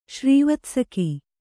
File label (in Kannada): "ಶ್ರೀವತ್ಸಕಿ", Kannada